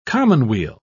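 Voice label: "commonweal", British English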